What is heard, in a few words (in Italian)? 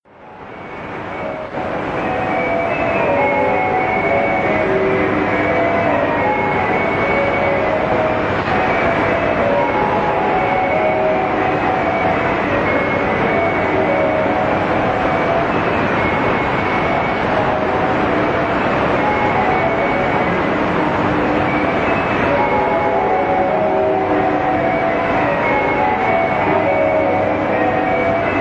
9410 kHz inglese; BBC Ascensione; Degen DE 1103; filo 5m; St. Ursen